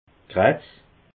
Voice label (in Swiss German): "kratz", alsace